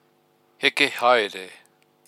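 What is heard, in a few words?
"heke haere", Maori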